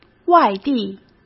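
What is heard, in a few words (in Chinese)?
"外地", Mandarin Chinese; hsk; chinese flashcards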